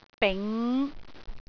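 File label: "bing6", Cantonese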